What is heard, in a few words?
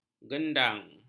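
"gendang", Malay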